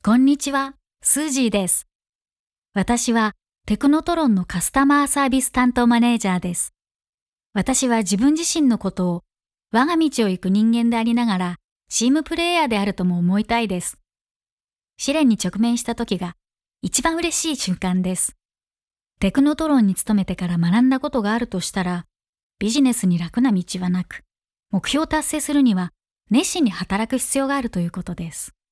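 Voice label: Corporate character Japanese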